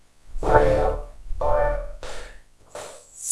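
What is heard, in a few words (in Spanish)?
Voice Acting es F, Spanish, female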